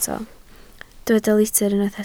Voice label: "litr", Welsh